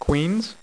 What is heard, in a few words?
"QUEENS", Dealer's Speech; PowerPOKER Demo